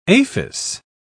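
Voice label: "aphis", British English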